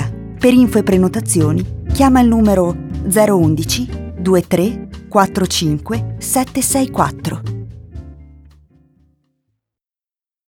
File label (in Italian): spot ristorante; brocante